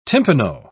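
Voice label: "timpano", British English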